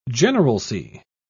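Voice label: "generalcy", British English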